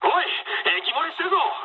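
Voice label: damaged water mood high, speech japanese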